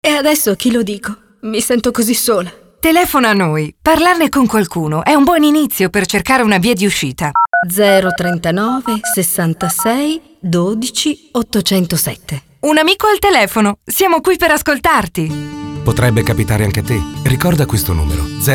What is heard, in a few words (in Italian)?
spot vocale